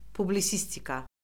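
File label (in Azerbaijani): "publisistika", Azerbaijani; Orfoqrafiya Lüğəti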